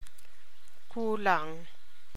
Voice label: TAGALOG